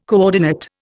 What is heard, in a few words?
"coordinate", Indian